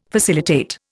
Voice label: "facilitate", Indian

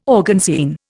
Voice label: "organzine", Indian

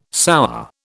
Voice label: "sour", British

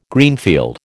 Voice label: "Greenfield", American

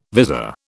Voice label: "vizor", British

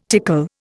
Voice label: "tickle", Indian

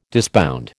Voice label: "disbound", American

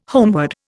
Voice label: "homeward", Indian

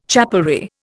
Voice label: "chapelry", Indian

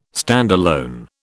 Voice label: "standalone", British

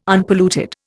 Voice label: "Unpolluted", Indian

